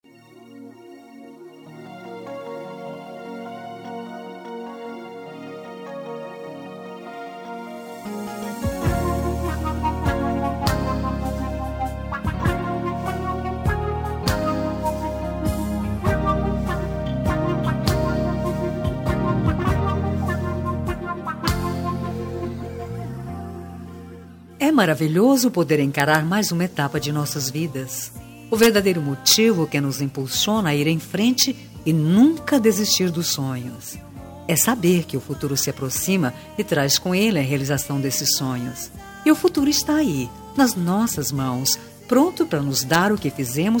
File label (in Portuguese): ano novo fem neutra, Ano Novo – Pessoa Especial – Voz Feminina; Telemensagens